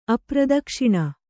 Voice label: "ಅಪ್ರದಕ್ಷಿಣ", kannada

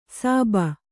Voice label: "ಸಾಬ", Kannada

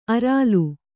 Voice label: "ಅರಾಲು", Kannada

